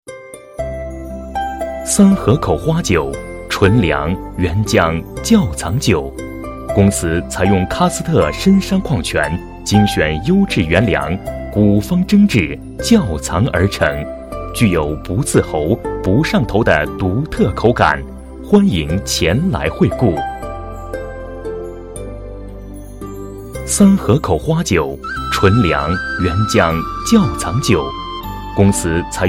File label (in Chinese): 男 彩铃 酒; 花酒; 三河酒